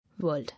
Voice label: "World", English

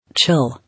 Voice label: "Chill", English

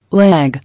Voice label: "Lag", English

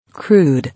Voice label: "Crude", English

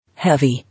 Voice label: "Heavy", English

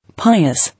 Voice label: "Pious", English

